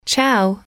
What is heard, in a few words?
"chow", English